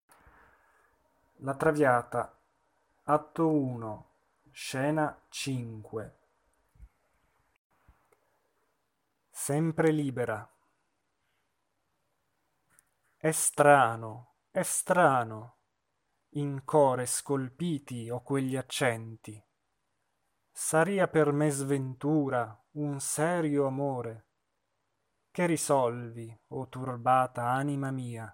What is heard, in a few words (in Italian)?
espressivo; Traviata; e strano